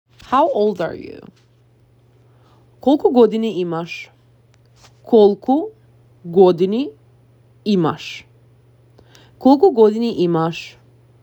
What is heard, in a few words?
How old are you, macedonian; the talking macedonian phrasebook